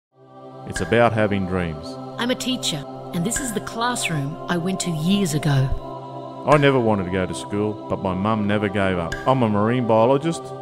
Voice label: 60 second ad; Education Dreams